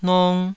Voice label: "nong2", Taiwanese

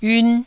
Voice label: "yiun1", Taiwanese

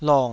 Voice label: "long3", Taiwanese syllable

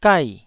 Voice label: "gai4", Taiwanese

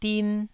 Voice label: "din1", Taiwanese

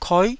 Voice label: "koi9", taiwanese syllables

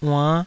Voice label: "uann5", Taiwanese

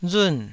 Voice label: "run4", taiwanese

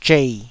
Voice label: "zhei3", Taiwanese

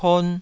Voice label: "pon2", taiwanese

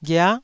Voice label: "ghia9", Taiwanese

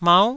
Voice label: "mau9", Taiwanese syllable

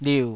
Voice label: "liu3", Taiwanese syllable

